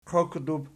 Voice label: "qioqdub", Archi